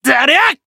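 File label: attack, Japanese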